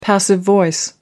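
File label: "passive voice", British English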